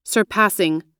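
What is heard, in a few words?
"surpassing", American English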